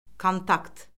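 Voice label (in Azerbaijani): "kontakt", Azerbaijani; Orfoqrafiya Lüğəti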